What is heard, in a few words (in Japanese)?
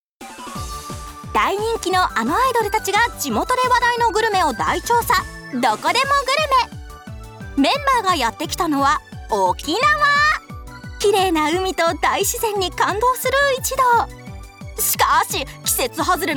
中高音明るい表情豊かアイドル情報番組ナレ; 「どこでもグルメ」